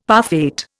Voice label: "parfait", Indian; Dictionary

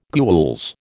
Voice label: "gules", American

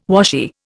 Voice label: "washy", Indian

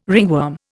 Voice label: "ringworm", Indian

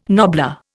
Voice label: "nobbler", Indian

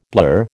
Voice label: "blur", American

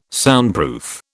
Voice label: "Soundproof", British

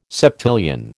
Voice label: "septillion", American

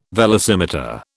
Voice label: "velocimeter", British

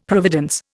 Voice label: "providence", Indian; Dictionary

